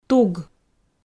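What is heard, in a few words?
"туг", Komi, female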